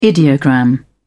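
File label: "ideogram", British English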